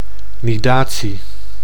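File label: "nidatie", Dutch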